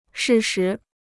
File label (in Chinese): "适时", Chinese